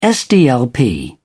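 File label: "sdlp", English, British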